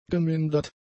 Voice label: "gemindert", German; adjectives